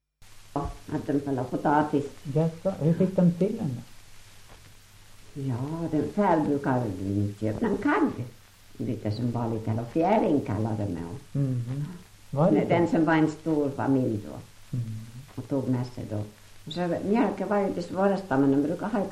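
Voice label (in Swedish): Ål Dalarna, dialekter, svenska sagor; Folkminnen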